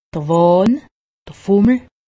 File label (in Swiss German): "wahn", alsace; medecine